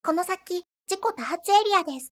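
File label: "jiko", Japanese; この先、事故多発エリアです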